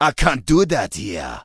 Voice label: Troll Male err cant create here